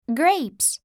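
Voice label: "grapes", English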